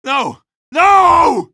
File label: cub death, male; coast; odessa